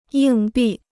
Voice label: "硬币", Chinese

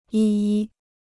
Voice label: "一一", Chinese

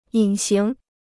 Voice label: "隐形", Chinese

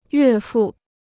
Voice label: "岳父", Chinese

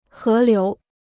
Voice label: "河流", Chinese

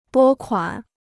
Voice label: "拨款", Chinese

